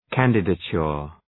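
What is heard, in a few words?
"candidature", English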